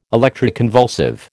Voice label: "electroconvulsive", American